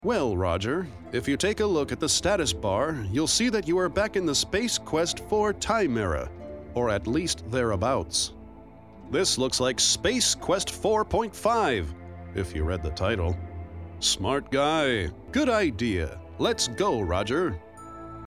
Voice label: narrator